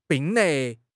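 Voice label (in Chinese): "并列", Chinese; HSK6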